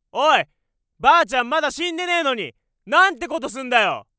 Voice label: train anger